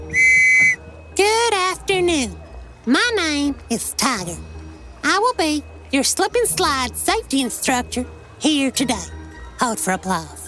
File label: Animation